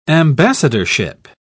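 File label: "ambassadorship", English, British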